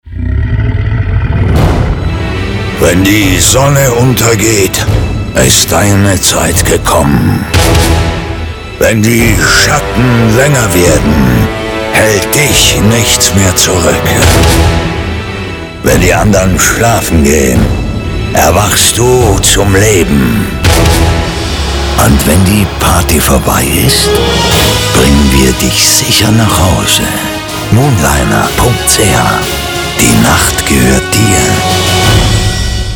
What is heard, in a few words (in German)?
radiospot